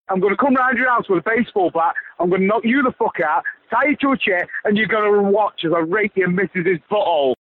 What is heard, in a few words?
Rant